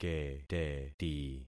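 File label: "keteti", concatenated syllables; Affixing experiment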